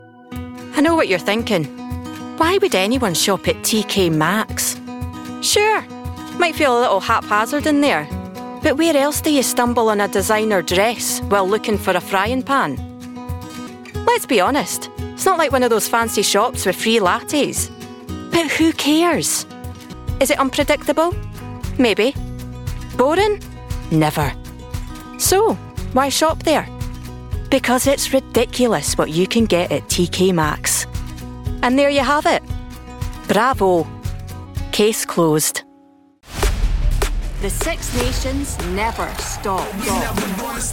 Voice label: commercial, Scottish